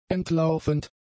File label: "entlaufend", German; adjectives